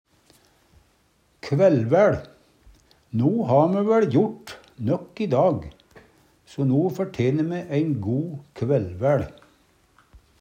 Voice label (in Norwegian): kvaellvaeL, numedalsmal